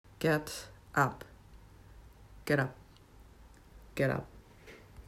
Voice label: "get up", English